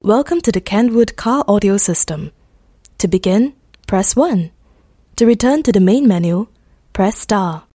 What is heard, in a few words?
English Singaporean Female; Kenwood